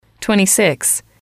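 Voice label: "twenty six", female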